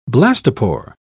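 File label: "blastopore", British English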